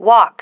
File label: "walk", female